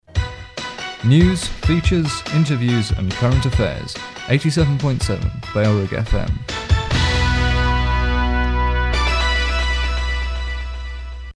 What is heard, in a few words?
news features interviews (electronic), jingles; bfm; bailrigg fm